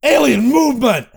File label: alien; sven; crimson commando